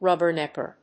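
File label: "rubbernecker", English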